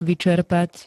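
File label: "vyčerpať", Slovak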